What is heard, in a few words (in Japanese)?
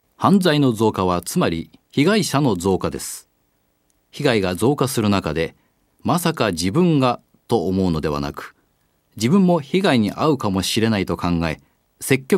防犯呼びかけ